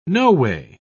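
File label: "noway", British English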